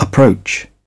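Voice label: "approach", British English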